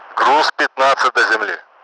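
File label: Russian speech, Mi-8MT; Messages fifteen to ground; DCS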